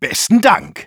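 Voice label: Engineer thanks, German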